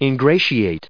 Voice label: "ingratiate", en us